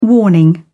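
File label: "warning", English, British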